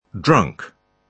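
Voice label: "drunk", British English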